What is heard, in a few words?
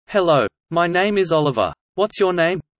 fast; studying abroad